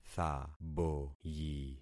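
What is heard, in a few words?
"thaboyi", concatenated syllables; Affixing experiment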